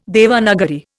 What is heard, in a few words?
"Devanagari", Indian